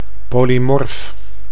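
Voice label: "polymorf", Dutch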